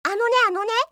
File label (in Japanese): "anoneanone", yobikake